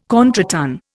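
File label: "contretemps", Indian English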